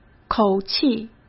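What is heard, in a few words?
"口气" Mandarin Chinese; hsk; chinese-flashcards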